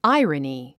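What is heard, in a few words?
"irony", English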